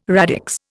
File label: "radix", Indian